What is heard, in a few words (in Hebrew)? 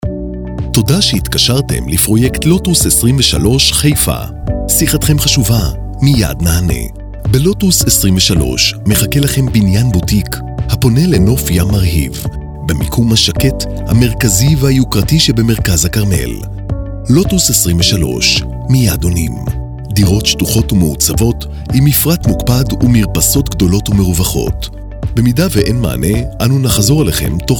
פתיח טלפוני; לוטוס